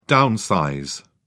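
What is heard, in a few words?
"downsize", British English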